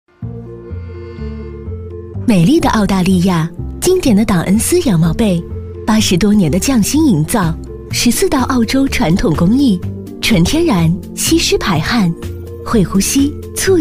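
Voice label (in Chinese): 女 广告配音【优雅】; 羊毛被; 温柔知性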